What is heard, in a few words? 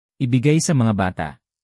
"sa", tagalog markers